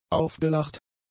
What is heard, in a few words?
"aufgelacht", German; adjectives